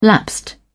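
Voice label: "lapsed", British English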